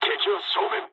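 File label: Japanese enemy detected mood low; War Thunder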